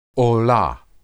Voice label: "olah", Hebrew